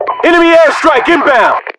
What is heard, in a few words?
enemy air, US voiceovers